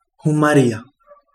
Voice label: kind, Kapingamarangi